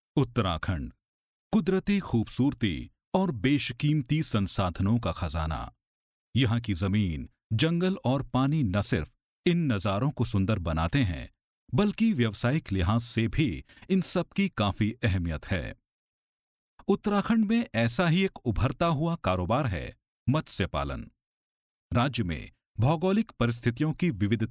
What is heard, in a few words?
Male with Pace